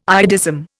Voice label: "iodism", Indian